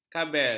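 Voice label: "kabel", Malay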